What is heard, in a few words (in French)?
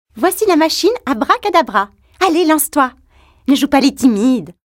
voix aigue; Jeu vidéo